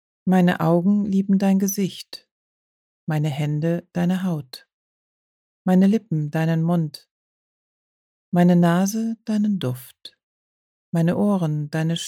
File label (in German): Stimmprobe Sanft